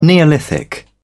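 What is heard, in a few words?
"neolithic", British English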